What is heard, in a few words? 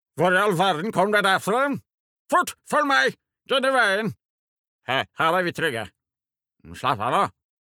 dub, character